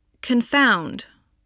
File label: "confound", english